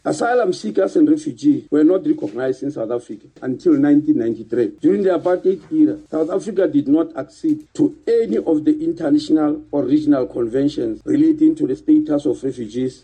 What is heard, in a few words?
ENG; newsflash